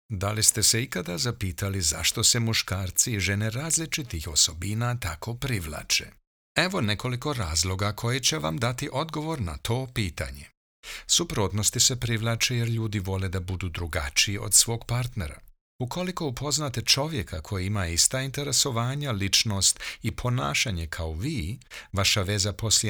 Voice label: serbian voice